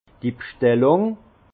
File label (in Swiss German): "bschtellung", alsace; vin; commande